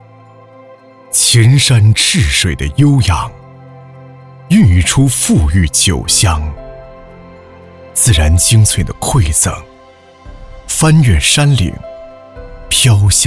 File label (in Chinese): 男 品牌广告 葡萄酒; 茅台葡萄酒